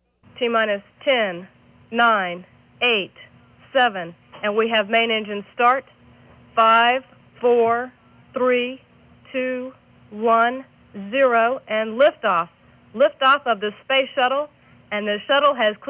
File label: Launch Sound Female Voice Countdown; Nasa